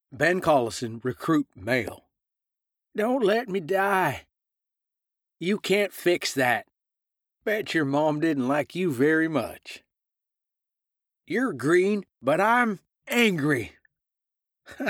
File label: Recruit Male